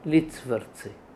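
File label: "Litsvrtsi", pronunciations; Fourth Way; Beelzebub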